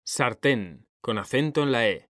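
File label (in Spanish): "sartén", male; mekanta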